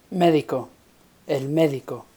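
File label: "médico", Spanish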